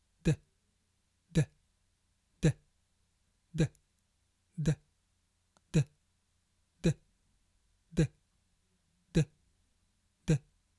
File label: sound d, pronunciation